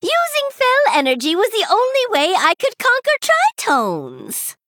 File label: Female Gnome Idle